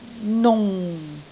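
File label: "nung4", Cantonese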